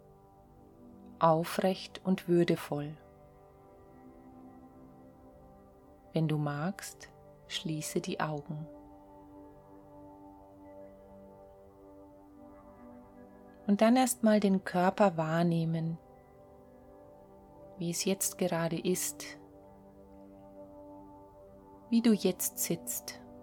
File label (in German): Metta Meditation Vertiefung mit Musik